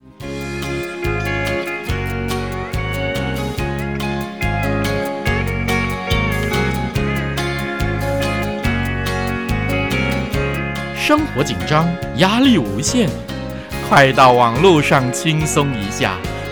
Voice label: chit chat